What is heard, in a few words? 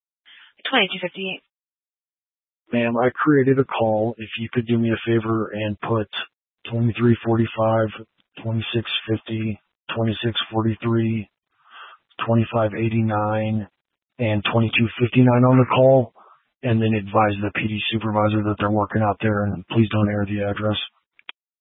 Sheriff Dispatch; Mesa County